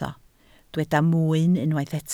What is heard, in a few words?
"mwyn", Welsh